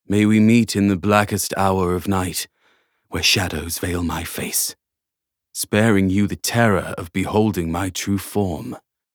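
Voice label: voice English gain; Phantasio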